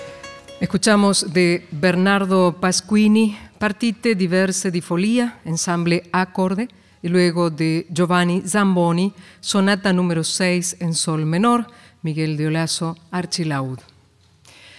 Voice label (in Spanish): Palabras Locutora